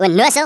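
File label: "stupid", Afrikaans accent; Worms Armageddon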